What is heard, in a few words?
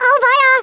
OOPS, Speech, German; Worms Armageddon